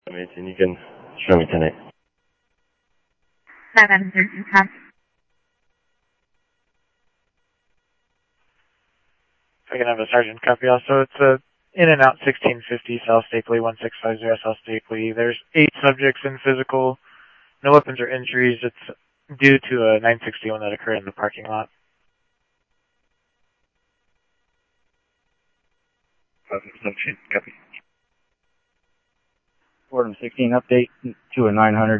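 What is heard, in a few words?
Mesa Simulcast Police Department; MESA PD 1 Fiesta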